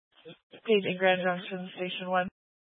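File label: Fire Dispatch, scanner audio recording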